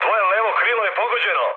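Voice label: Serbian left wing mood med; War Thunder; speech srb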